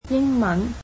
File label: "English", cantonese